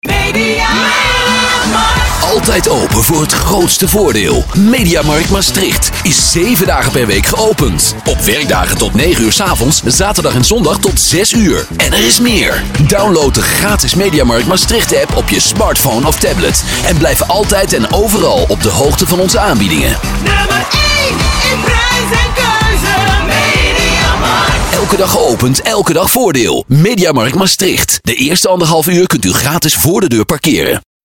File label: radiospot; MediaMarkt Maas1; Maastricht FM